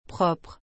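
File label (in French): "propre", French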